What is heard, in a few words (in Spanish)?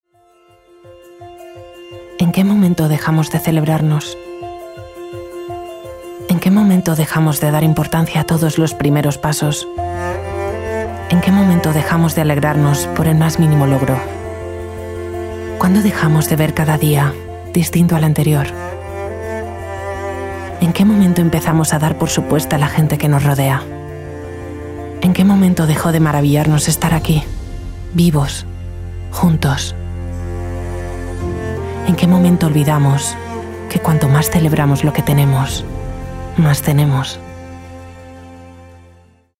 SPOT EMOTIVA CERCANA